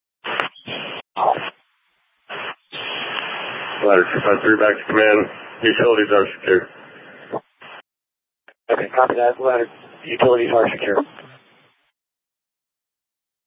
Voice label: FD Departments VHF 154.800 Patch, scanner

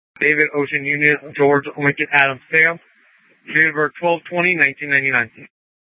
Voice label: Sheriff, scanner

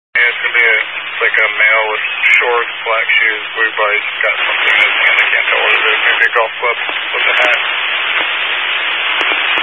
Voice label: Highway Patrol, Phoenix Metro West Area, scanner

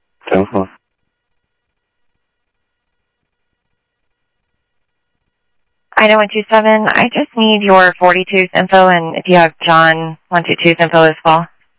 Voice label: South Scottsdale Sheriff, scanner audio

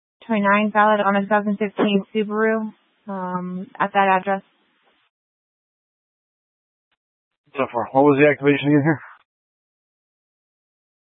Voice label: Dispatch, scanner

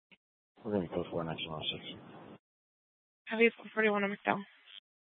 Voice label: Dispatch, scanner

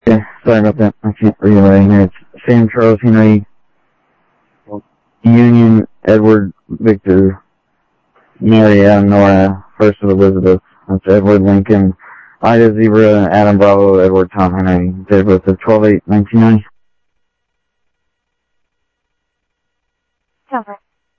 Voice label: Sheriff Common, scanner audio trunk; MCSO - Info; ThompsonPeakScot

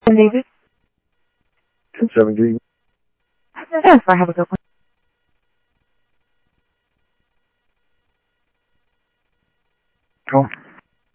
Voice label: Sheriff Central, scanner audio trunk

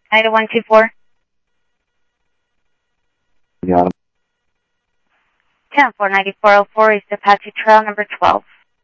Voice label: Sheriff, scanner audio; MCSO - EAST Sheriff-EastCnty; South Scottsdale

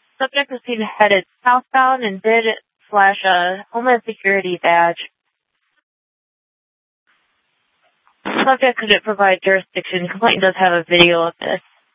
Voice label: Sheriff, scanner

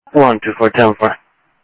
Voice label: scanner audio, Sheriff

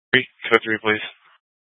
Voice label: FD Dpts VHF 156.240 Patch

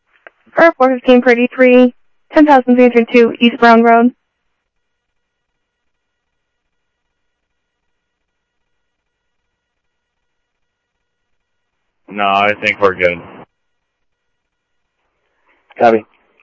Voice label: scanner audio trunk

